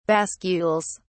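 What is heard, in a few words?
"bascules", British English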